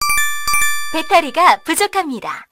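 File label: voice Korean, keytone; lowbattery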